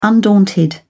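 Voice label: "undaunted", British English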